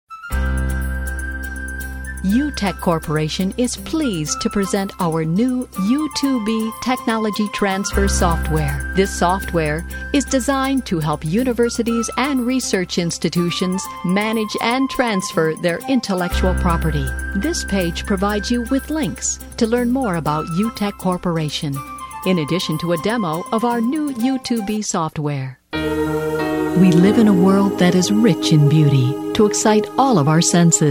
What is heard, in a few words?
narration